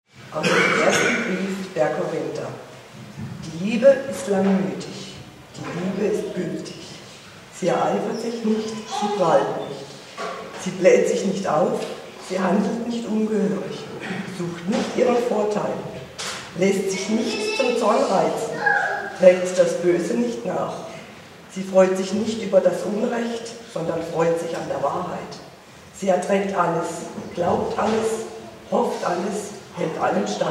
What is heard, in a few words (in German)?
Lesung